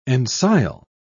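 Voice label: "ensile", English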